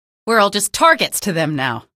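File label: citizen alert soldier, female; source engine; episodic; episode 1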